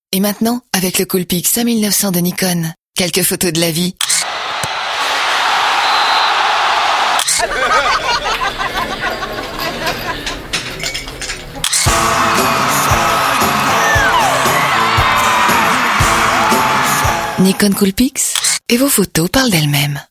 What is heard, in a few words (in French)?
radio, posee enthousiaste; nikon coolpix